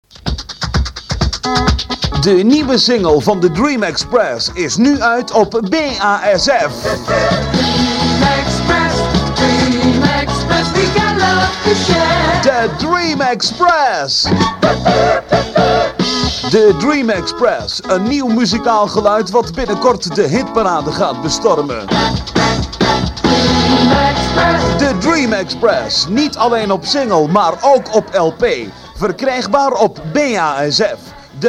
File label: commercial